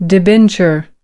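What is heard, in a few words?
"debenture", US English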